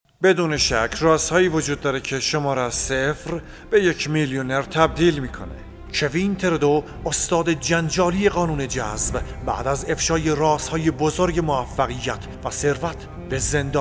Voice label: mix voice